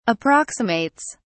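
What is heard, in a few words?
"approximates", British English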